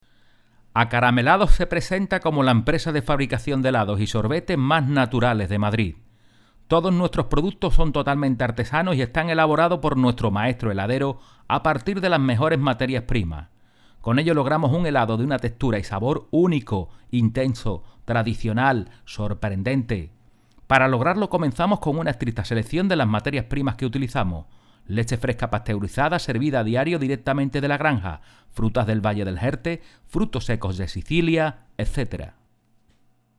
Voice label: locutor andaluz spanish voiceover andalucia; locutor de Andalucía